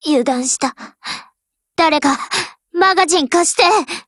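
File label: BREAK, Japanese; GF Alarm; M249SAW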